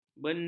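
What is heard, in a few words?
"bendar", Malay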